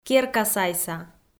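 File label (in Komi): "керкасайса", Komi, female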